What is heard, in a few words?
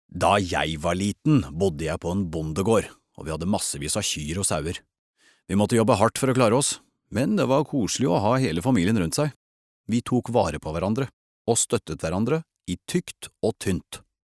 no dub man